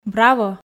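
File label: "браво", Komi, female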